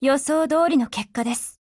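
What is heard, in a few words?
Japanese, Voice; OPERATIONOVER; GF Alarm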